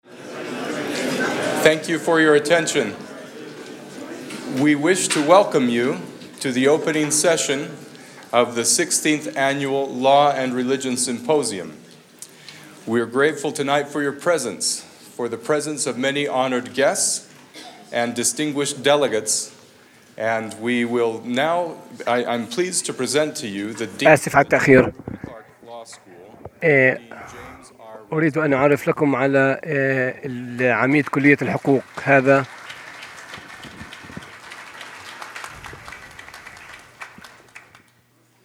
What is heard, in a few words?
Arabian; arabic; iclrs symposium 2009